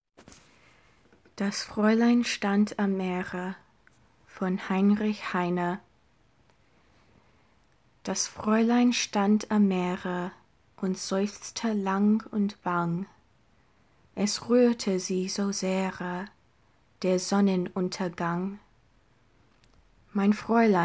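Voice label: German, English, short, humor, female; Das Fräulein stand am Meere by Heinrich Heine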